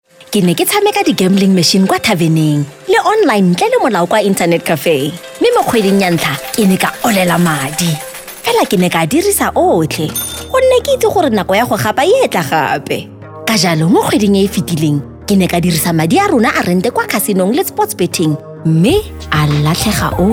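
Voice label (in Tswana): Setswana, Awareness Campaigns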